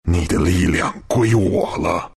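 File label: ability bloodbath, Chinese; Dota 2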